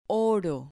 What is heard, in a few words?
"oro", Spanish